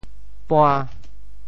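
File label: "pua~5", Teochew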